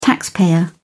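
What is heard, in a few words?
"taxpayer", British English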